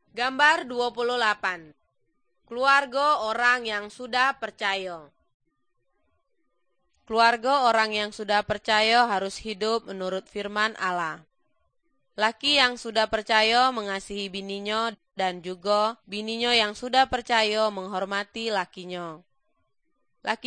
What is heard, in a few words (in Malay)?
good news malay jambi